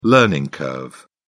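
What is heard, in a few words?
"learning curve", English, British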